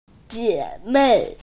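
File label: "sisters", Mandarin; jie3mei4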